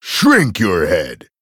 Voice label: Male Troll Attack

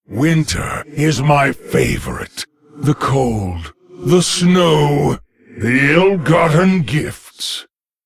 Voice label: Male Lich HAPPY HOLIDAYS

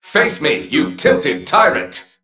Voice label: Male Mech Play

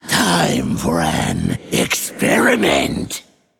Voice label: Male Undead

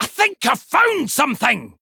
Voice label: Male Dwarf Play

